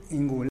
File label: "ingul", tlacochahuaya; animals; male